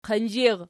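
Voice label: "qinzhir" nominative, Archi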